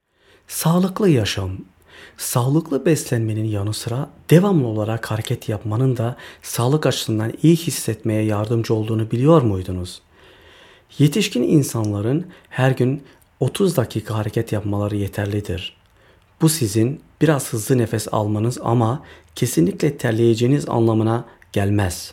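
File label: Turkish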